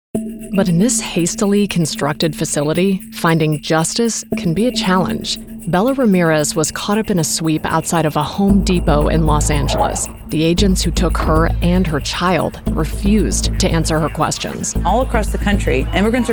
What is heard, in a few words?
Tv Narration